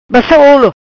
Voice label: "Bassa Olo", Macanese